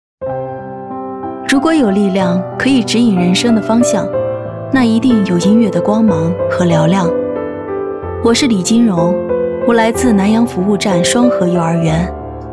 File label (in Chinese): 女 人物旁白 温情自述感; 深情感人